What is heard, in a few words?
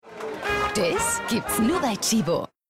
TV Spot Claim; Tchibo